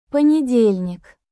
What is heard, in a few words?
"ponedelnik", russian